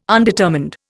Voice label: "undetermined", Indian